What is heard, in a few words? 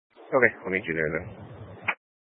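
Sheriff Dispatch, Scanner audio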